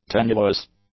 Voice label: "tenuous", English; adjectives